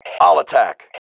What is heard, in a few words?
I attack, male